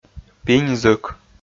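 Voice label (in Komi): "пинь-зык", Komi, male; audio dict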